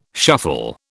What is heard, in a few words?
"shuffle", British